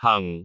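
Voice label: "hang4", Cantonese; google B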